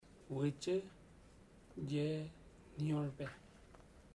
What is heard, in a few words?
he doesnt make, chamacoco; Bahia Negra